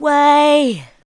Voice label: SPOKEN VOX, ADLIPS